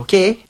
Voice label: "okay", expletives

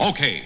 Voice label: "okay", expletives

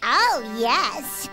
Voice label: oh yes girl

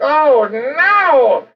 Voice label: "oh no" cartoon; ooh ahh

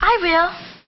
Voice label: i will, girl; Voice

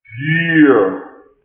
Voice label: "yeah" slowed, voice

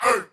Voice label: "hey", crunk male

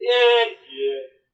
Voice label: yeah yeah (sarcastic)

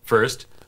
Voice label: "first", male; Voice; numbers and letters

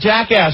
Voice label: "jackass", male; Voice

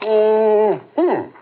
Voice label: "mmm hmm", male; Voice

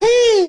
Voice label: "wow", Voice; ooh ahh

